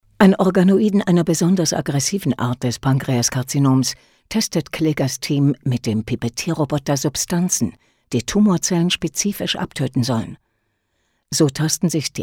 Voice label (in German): Lehrfilm Medizin, e-learning